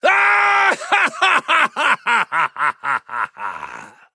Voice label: Male Human Start

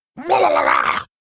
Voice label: Male Murloc Attack

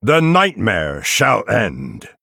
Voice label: Male Night Elf Start; Hero

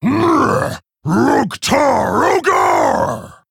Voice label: Male Orc Attack; Hero

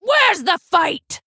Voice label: Female Orc Play; Forgotten Fighter

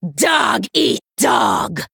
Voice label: Female Troll Attack

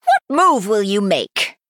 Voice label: Female High Elf Emote Idle

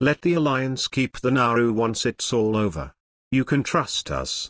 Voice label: Male Gnome; Scabbs; Mission9 Exchange AC

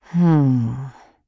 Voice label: Thinking

